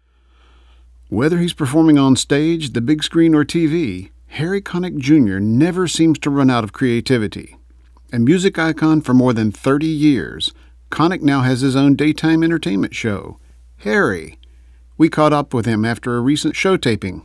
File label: mic test voice